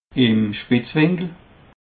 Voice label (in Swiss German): "spetz winkel", alsace